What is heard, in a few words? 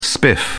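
"spiff", British English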